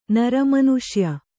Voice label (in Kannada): "ನಿರ್ಮಾನುಷ್ಯ", Kannada